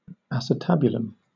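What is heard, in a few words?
"acetabulum", British English; pronunciation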